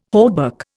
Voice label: "hauberk", Indian